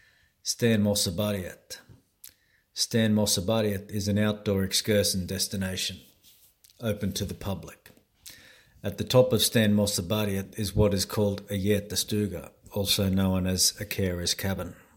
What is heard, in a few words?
English voice; Stenmosseberget